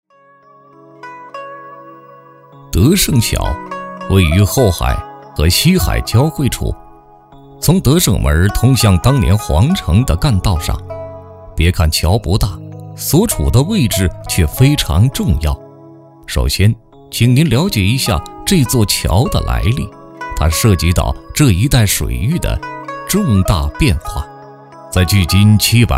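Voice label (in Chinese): 男国大音-（老北京类纪录片-沧桑-深沉）; 德胜门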